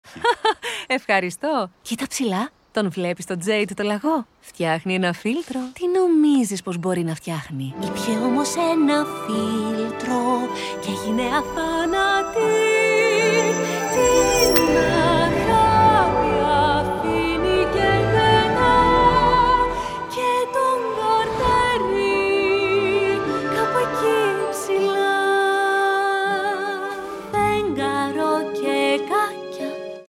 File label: Mother speaking singing; Over The Moon